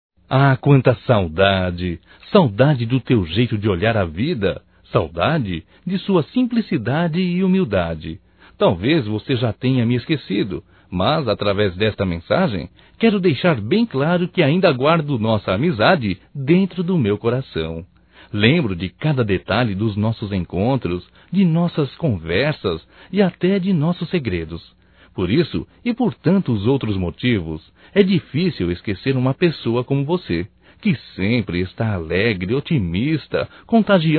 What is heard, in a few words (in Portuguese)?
SAUDADES NEUTRA (M), Só Voz; Telemensagens; Telemensagens 2017